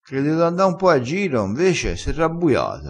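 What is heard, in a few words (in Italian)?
"rirabbuia", vocabolario dialettale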